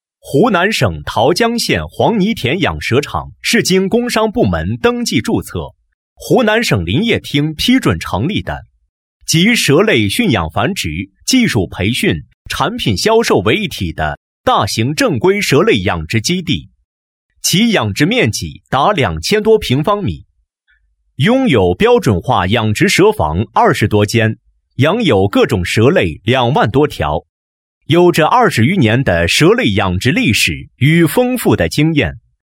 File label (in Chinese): 男,专题,企业介绍,养蛇场介绍; 湖南养蛇场